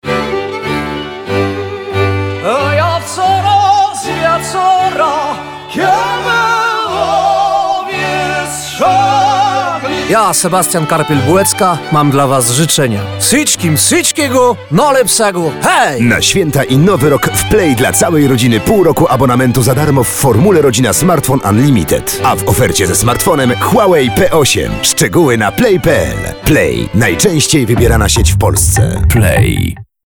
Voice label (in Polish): RADIO mix